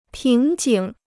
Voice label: "瓶颈", Chinese